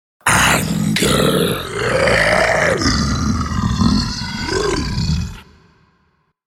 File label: The word ‘Anger’ spoken in deep, gruff voice with monster growl.; Attitude